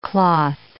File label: "cloth", English